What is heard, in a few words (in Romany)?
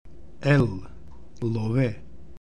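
"Love", Romani; Romska-alfabetet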